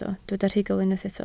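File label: "rhugl" Welsh, spoken word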